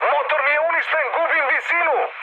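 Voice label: Serbian damaged engine mood med, speech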